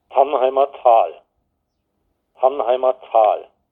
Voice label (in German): Mundart; tannheimertal